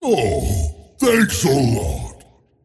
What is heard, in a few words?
tiny thanks; dota